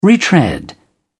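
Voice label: "retread", British English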